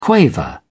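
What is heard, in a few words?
"quaver", British English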